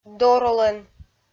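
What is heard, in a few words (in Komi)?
"доролӧн", Komi, female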